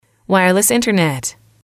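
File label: "wireless internet", English, female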